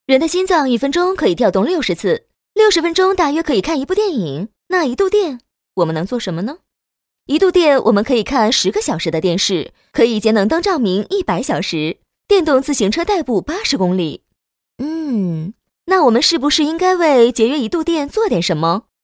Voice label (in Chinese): 女, 国语女声配音; 飞碟说; 让天空更蓝